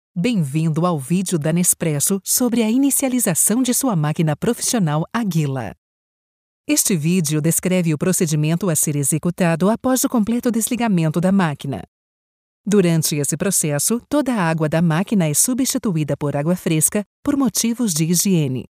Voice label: brazilian voiceover locutora brasil video empresa; brazilian industrial recording; recording for "Nespresso"

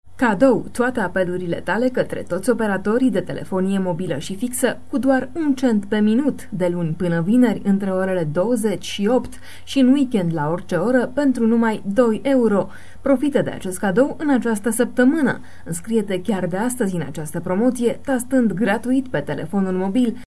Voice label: locutora rumana romanian voiceover

